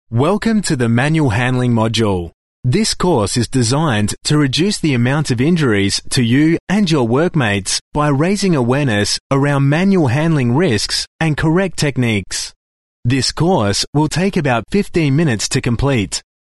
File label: corporate sample